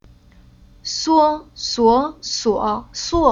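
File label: "Suo", Chinese syllable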